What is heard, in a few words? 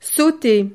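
"sauter", French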